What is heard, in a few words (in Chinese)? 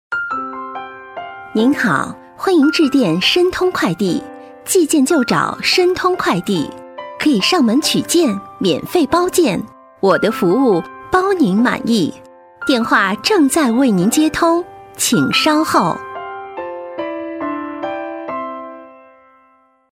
女 抒情 彩铃